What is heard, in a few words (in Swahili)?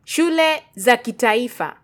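"shule za kitaifa", Swahili, Kenya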